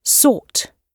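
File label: "sought", British English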